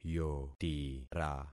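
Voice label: "yotira", concatenated syllables; Affixing experiment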